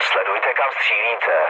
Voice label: player friendly fire, speech cze, mood low; War Thunder

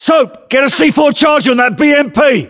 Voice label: voiceovers, US; english

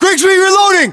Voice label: US inform reloading, battlechatter, english

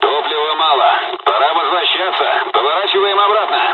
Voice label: dialog, dialogs russian; War Thunder

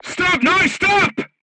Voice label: voice message short stop, crew tanks portuguese

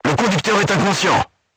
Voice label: voice message driver contused, crew tanks french

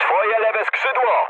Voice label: left wing mood med, speech pol; War Thunder

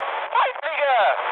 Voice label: enemy detected, mood high, speech ge; War Thunder

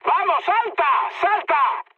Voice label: bailout, mood high, speech; War Thunder

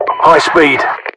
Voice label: title highspeed, UK; english; voiceovers; mpvoice

